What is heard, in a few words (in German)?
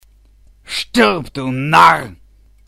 Narr